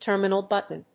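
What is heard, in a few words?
"terminal button", English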